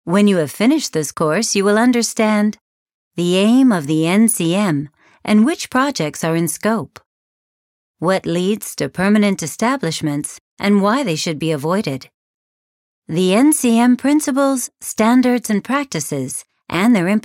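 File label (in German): American English Kommentar Neutral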